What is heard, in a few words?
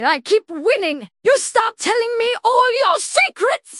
happy; fertig classic games